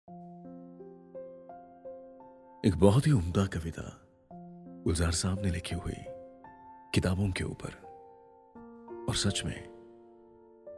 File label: Hindi Recitation